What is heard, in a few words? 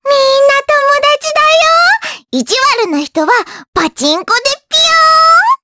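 vox dia Japanese; Luna; kingsraid; jp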